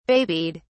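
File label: "babied", British English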